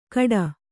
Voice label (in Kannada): "ಕಡ", Kannada